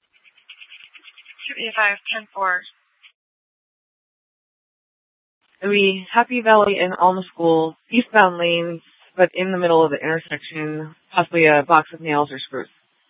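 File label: police dispatch, Scottsdale PD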